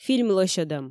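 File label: "фильм лӧсьӧдӧм" Komi, female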